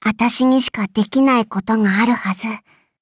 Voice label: levelup ja; Cookie Run